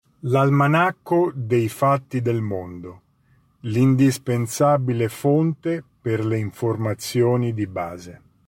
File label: Italian; the world factbook